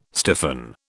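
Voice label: "stiffen", British English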